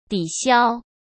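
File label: "抵消", Mandarin Chinese; hsk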